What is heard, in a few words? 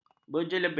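"berjeleber", Malay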